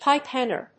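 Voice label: "pipe hanger", English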